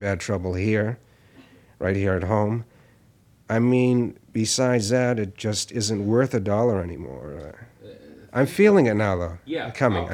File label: Announcer Process